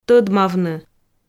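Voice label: "тӧдмавны", Komi, female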